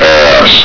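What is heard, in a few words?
"ass", male; hl2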